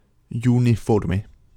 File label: "uniforme" incorrect, Spanish pronunciation; Phoneme u